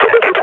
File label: firing, combine grunt